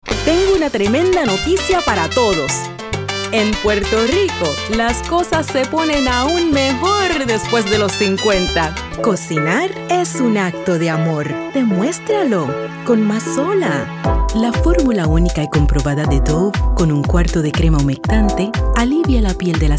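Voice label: Spanish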